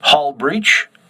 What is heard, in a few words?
voice message hull breach, crew ships english